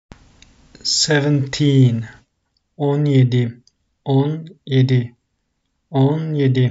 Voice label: seventeen 17, turkish; the talking turkish phrasebook